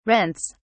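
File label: "rents", English, British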